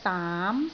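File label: "daam2", Cantonese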